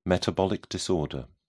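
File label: "metabolic disorder", English, British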